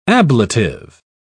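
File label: "ablative", British English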